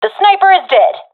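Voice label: i killed sniper, professional female; vo; agents; Source Model Packs; Counter Strike Model Pack; CS2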